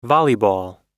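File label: "volleyball", US English word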